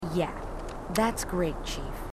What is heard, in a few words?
marines female, marine tough